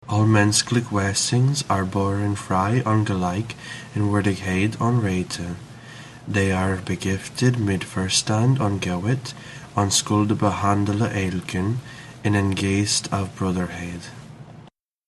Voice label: Folkspraak

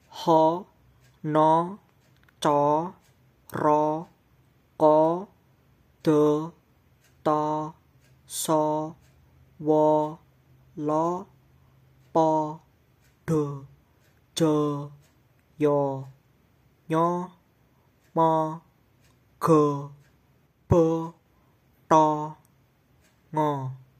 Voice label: alphabet javanese

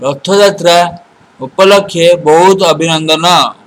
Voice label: happy rathayatra, odia

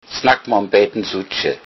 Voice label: slowly, low saxon

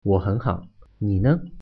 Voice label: how are you, mandarin

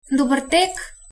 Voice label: bon appetit, slovenian

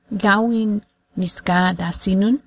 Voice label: this weather isn't bad, ojibwe

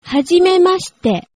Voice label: meet, japanese

